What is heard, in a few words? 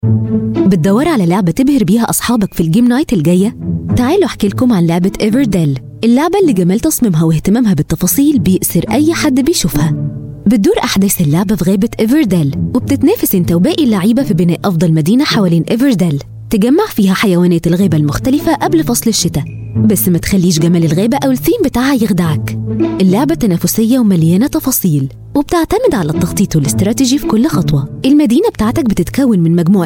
arabic voice over female narrator